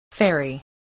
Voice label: "ferry", spelling

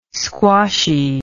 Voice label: "squashy", english

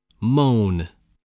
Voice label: "moan", spelling

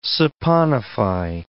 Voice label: "saponify", English

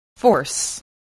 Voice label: "force", English voice, spelling

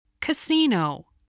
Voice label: "casino", spelling

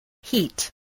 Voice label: "heat", English, spelling

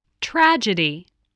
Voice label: "tragedy", voice

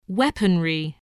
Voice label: "weaponry", spelling

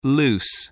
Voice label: "loose", spelling

